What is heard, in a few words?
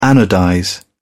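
"anodize", British English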